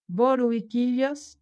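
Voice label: "bolo e quilhas", Occitan Gascon; Prêts pour l’Òc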